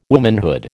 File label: "womanhood", American